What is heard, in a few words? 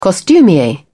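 "costumier", British English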